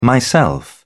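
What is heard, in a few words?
"myself", British English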